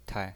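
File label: "tai", Mandarin Chinese pinyin, neutral tone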